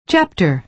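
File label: "chapter", American English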